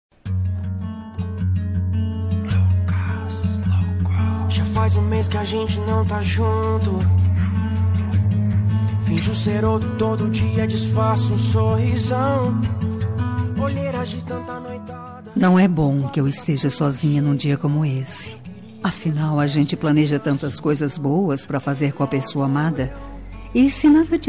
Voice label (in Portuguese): dia dos namorados distante, voz feminina